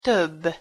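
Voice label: "tobb", Hungarian pronunciation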